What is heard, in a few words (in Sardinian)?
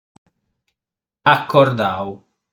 "Acordau", sardu